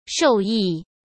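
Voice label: "受益", Mandarin Chinese; chinese-flashcards; hsk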